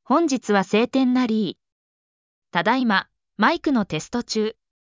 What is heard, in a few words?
voice sample, vocoder